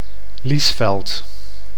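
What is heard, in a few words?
"Liesveld", Dutch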